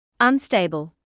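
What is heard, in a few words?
"unstable", British English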